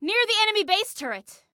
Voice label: Warn Context Enemy Near Enemy Base Turret, Female; Female 02